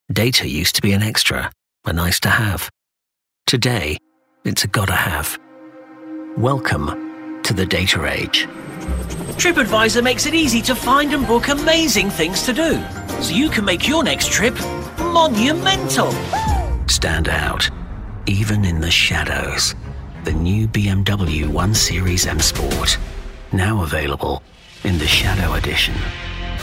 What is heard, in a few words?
Commercial